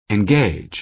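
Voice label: "engage", American English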